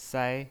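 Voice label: "sai", Mandarin Chinese pinyin voice, first tone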